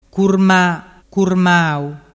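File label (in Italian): "curmamau", Vernazzese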